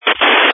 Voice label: Family Radio Service (FRS)